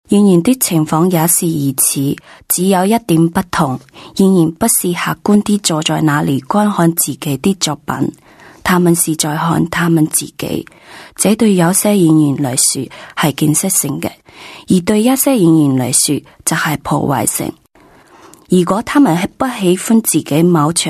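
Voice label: woman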